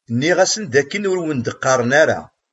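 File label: "Nniɣ-asen d akken ur m-d-qqaṛen ara.", Kabyle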